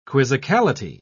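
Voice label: "quizzicality", English, uk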